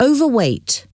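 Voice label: "overweight", English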